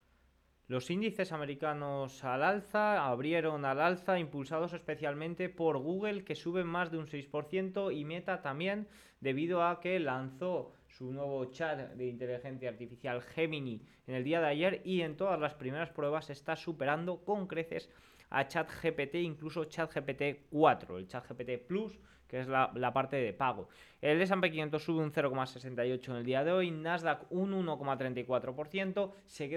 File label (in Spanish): radio; noticias bolsa; google lidera el ndx